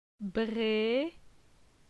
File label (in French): "Bre", phonetique; la syllabe e